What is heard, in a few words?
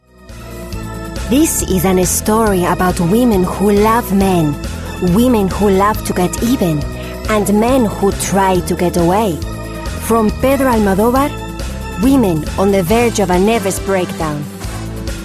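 Spanish English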